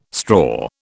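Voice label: "straw", British English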